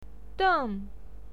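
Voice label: "den", Mandarin Chinese, fourth tone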